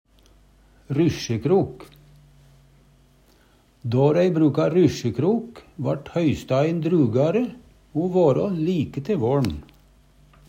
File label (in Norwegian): rysjekrok, Numedalsmål